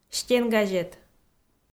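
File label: "стенгазет", Komi, female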